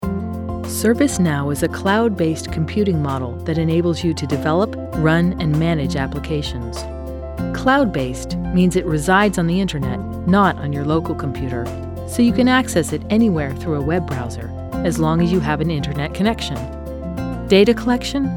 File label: Elearning